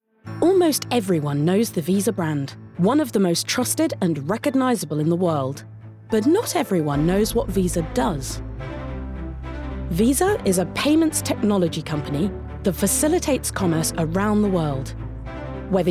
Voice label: Corporate